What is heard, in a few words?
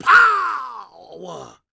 preachin, gospel preacher